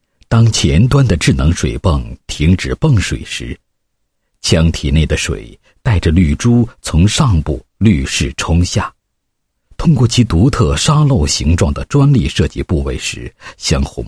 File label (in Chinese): 男 产品介绍 锦鲤过滤系统介绍